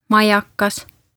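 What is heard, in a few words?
"Majakas" Estonian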